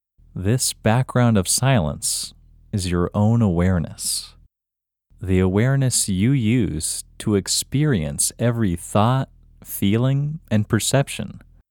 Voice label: Male